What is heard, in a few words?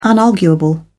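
"unarguable", British English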